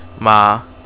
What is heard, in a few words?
scold